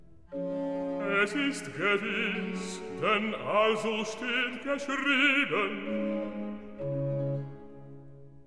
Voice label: Recitativo Jesus; Handel Masterworks